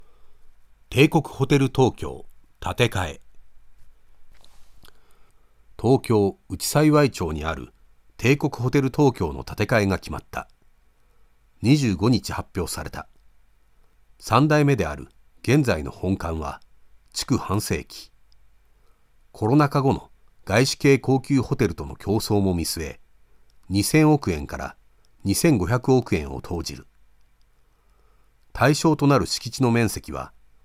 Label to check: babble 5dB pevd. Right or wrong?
Wrong